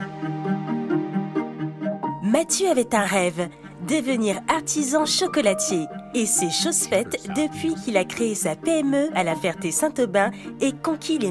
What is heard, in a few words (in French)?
motion design dynamique rassurant convivial voix off; Le très haut débit Lysseo